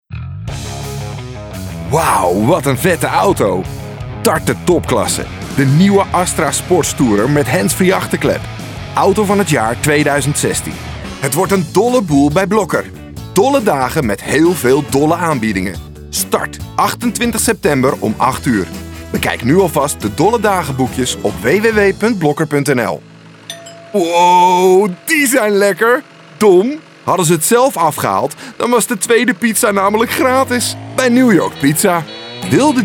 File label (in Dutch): Stemdemo de gezellige stem